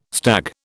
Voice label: "stag", British